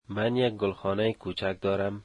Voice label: I have a small green house, dari

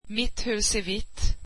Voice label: swedish my house is white

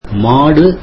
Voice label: tamil cow

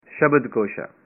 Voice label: "dictionary", punjabi

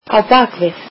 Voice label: icelandic behind

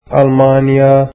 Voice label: arabic Germany

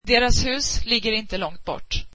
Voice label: their house is not far, swedish

